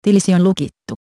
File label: Account Locked, fi